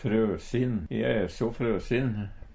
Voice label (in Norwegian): "frøsin", Numedalsmål; E e so frøsin.; Adjektiv